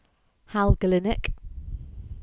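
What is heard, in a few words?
"Kelynack Moor" Cornish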